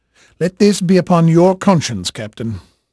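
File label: English male, voice; Pirates Of The Caribbean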